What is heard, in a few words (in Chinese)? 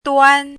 "duan1", 汉字语音; chinese-voice